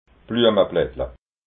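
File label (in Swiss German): "bluemablattla", Alsatian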